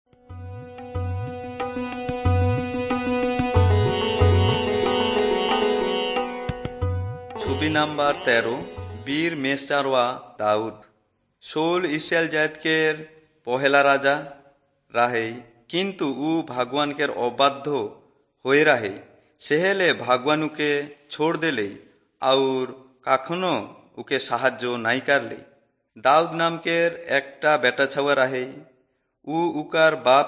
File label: servants of god urao, kurukh drama